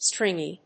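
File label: "stringy", English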